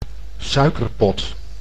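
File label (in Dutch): "suikerpot", Dutch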